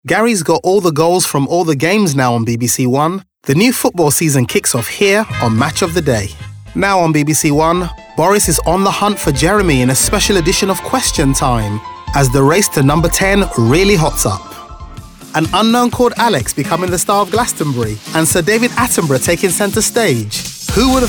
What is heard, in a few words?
BBC Continuity